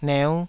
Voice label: "neu5", taiwanese syllables